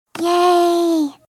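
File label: "yay", voice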